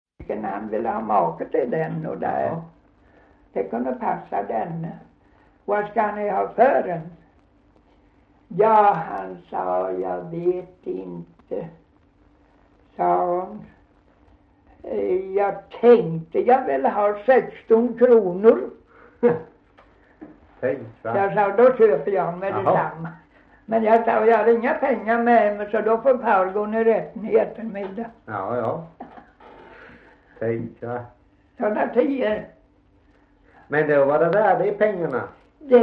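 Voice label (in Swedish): Gunnarp Halland, Svenska sagor, dialekter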